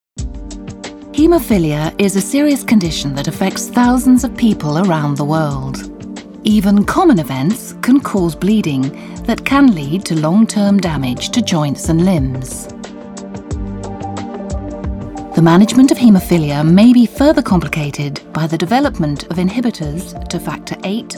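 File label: British English, female, explainer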